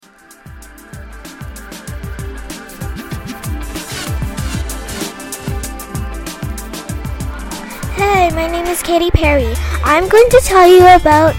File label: radio